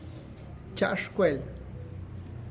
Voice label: I am going to school, Tlacochahuaya Zapotec; txa' xhkuel

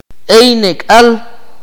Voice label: "some", Akateko

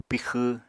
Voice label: "pixw", Apatani

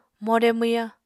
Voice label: "more mwyañ", Apatani

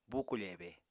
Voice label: "bukofebe", Apatani

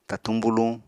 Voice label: "tatuñ buluñ", apatani

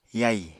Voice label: "yai", Apatani

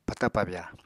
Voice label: "pata-pabya", apatani

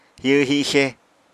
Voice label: "hihw-hixe", apatani

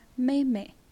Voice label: "younger sister", naxi

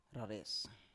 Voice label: "rares", Biak

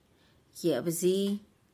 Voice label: "yebzi", tlacochahuaya

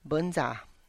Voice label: "bInza", zapotec Person, Tlacochahuaya Zapotec

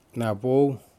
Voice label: fish yellow margin Triggerfish, Aneityum

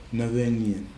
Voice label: "navegɨnien", Nafe; food

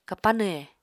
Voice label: "kapanw he", apatani

